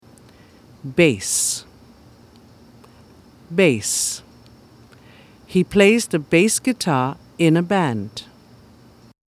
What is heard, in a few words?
"bass", English